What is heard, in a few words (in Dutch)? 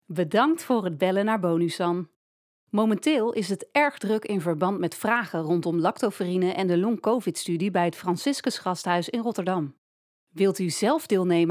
Voice-response zakelijk vriendelijk Voice-over Stemactrice